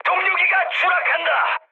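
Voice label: Korean aircraft destroyed mood high, speech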